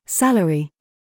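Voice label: TRAP, words, British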